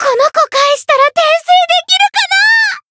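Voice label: Voice encounter fear, Japanese; ilulu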